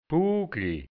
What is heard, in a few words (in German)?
"bugli", hianzisch Dialekt Burgenland; buckelig; gekrümmt